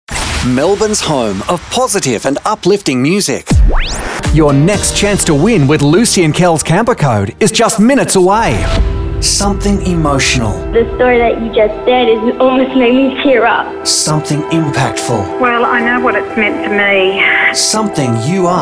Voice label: Promos